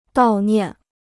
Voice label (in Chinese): "悼念", Chinese